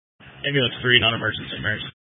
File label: Fire Department Dispatch; Grand Junction